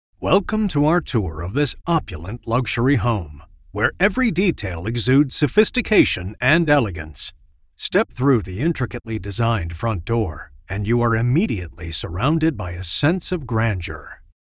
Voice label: english american audio guides; American voice actor

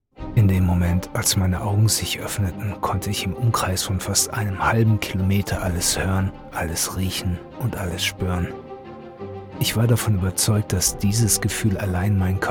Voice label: german video games

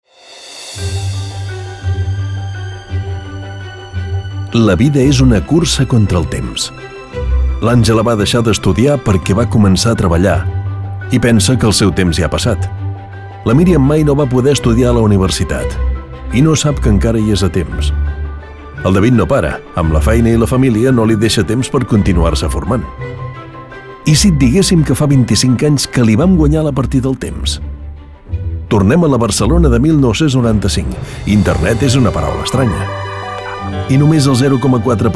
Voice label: catalan narration

